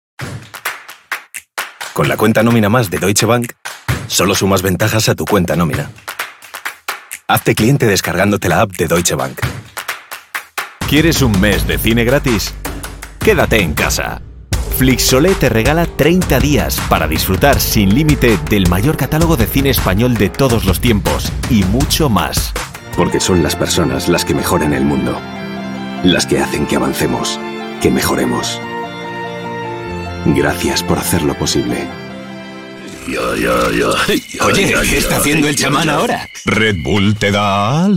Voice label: Spaans Man

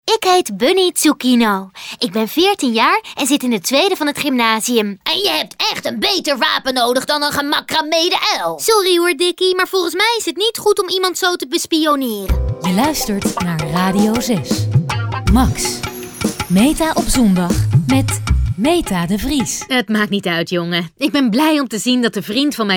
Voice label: Animatie Promo

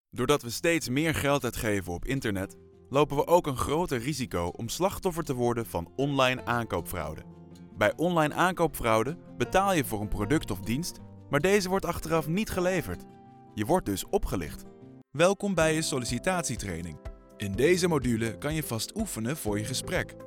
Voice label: informatief

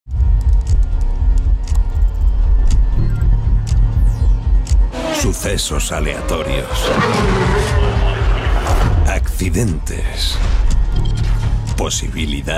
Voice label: spaans

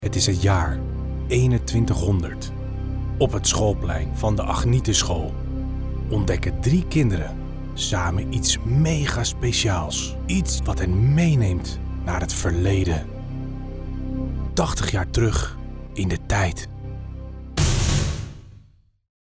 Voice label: Spannend mysterie

